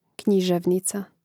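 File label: "književnica", Croatian; Mrežnik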